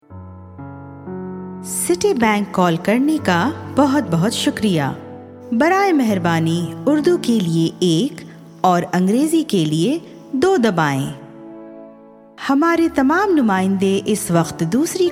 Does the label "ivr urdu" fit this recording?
Yes